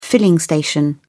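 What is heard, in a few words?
"filling station", British English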